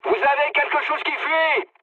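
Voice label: French tank damaged mood high; War Thunder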